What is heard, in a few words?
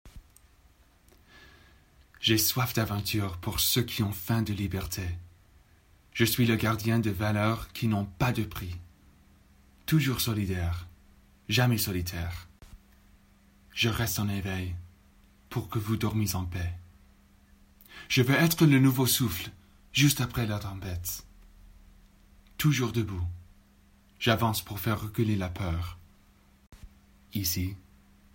French Army Commericial